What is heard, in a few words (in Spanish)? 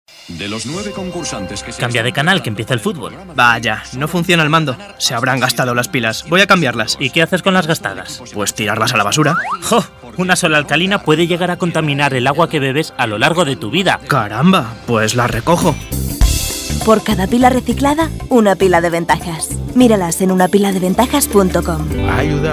Cuna Radio; Pilas